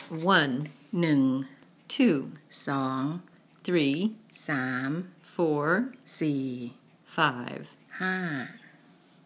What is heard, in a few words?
Thai number words